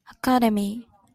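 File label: "academy", Japanese, female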